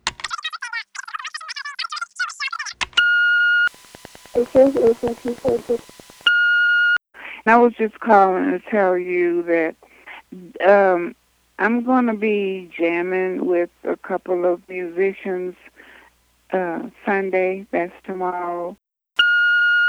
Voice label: Answering Machine